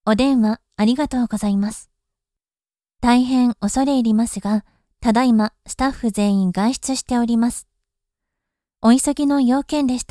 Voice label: 留守電; 留守電サンプル

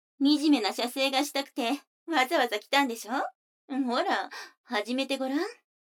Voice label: 女の子台詞, ASMR

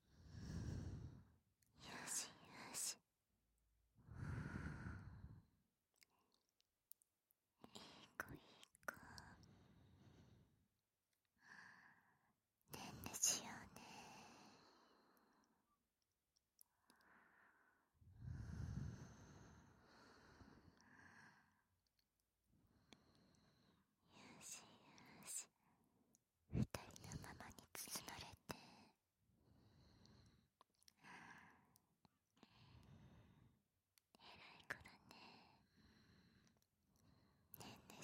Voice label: 添い寝

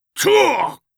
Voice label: たああっっ！！, 戦闘ボイス （中年・低音）

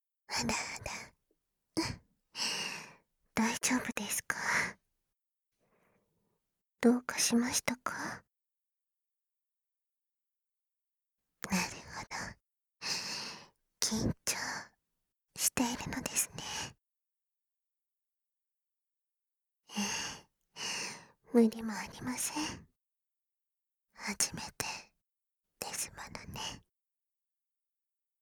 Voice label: 全肯定あまあま筆おろし（バイノーラルマイク収録 効果音なし）, ASMR

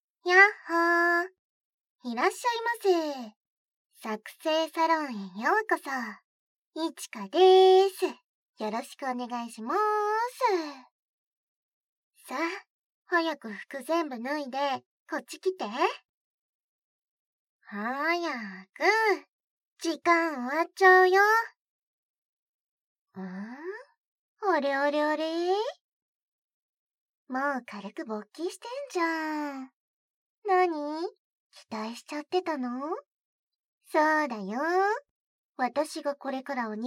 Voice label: 効果なし, ASMR; でちゅまちゅおててエッチコース

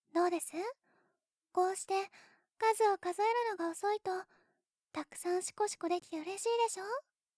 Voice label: ゆっくりカウント時のセリフ, ASMR

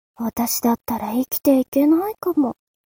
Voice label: mob 女子 台詞

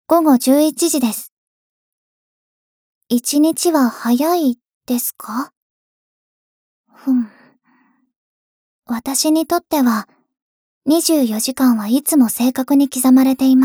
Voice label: 時報ボイス 電脳少女; ボイスコレクション

